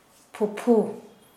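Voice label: paternal aunt, Kera Mundari, female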